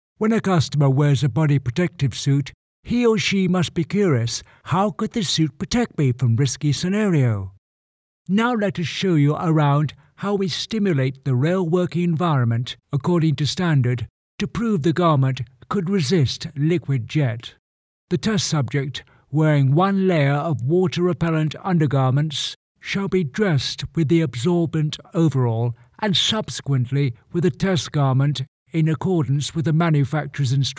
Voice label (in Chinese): 外籍 英 常规企宣